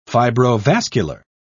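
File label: "fibrovascular", English, British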